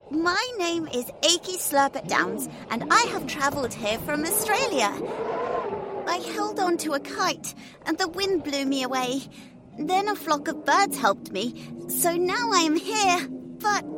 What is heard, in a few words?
F AU UK Child; AUDIODRAMA; kids